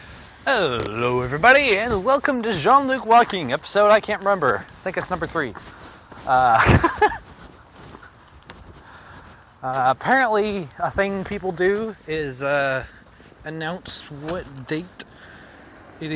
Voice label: weird two mics